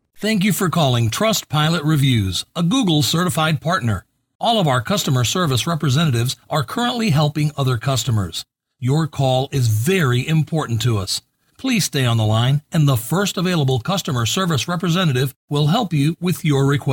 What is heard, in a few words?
English native